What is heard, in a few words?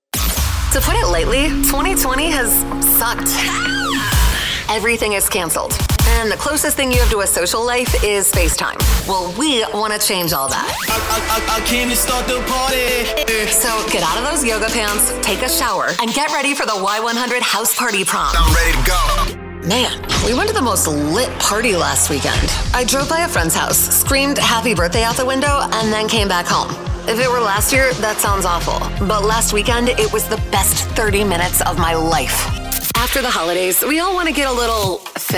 radio women; radio imaging